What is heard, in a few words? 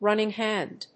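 "running hand", English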